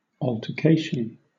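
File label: "altercation", British English; pronunciations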